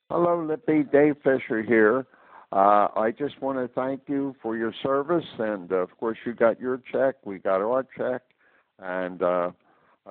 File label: Voicemail